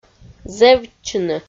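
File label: "зэвтчыны", Komi, female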